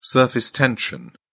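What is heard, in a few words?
"surface tension", British English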